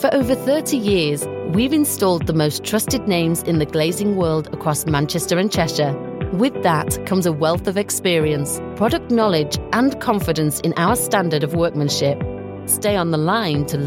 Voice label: IVR